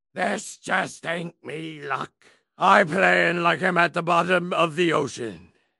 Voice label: upset; fertig classic games; blackwind